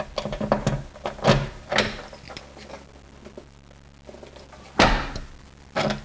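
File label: "trunk", English; WAV Sound Effects; transpo; cars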